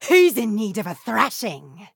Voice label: inspiring soldier attack